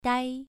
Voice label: "dai2", pronunciation